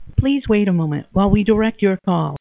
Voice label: voice phone direct call